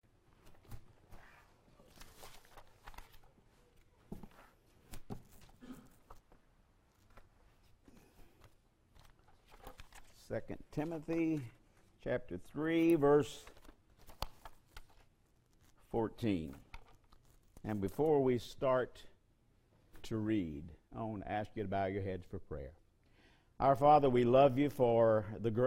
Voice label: Preaching